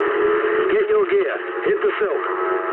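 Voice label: English bailout mood low; War Thunder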